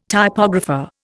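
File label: "typographer", Indian English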